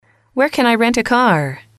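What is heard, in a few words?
"where can I rent a car", English, female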